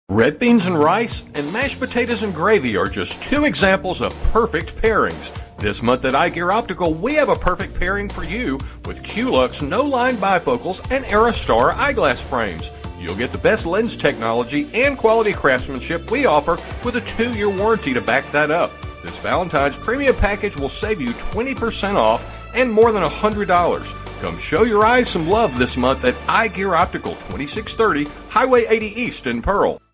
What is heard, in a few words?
Promo Ad